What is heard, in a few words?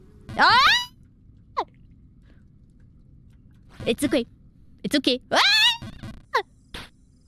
double peak volume; IRyScream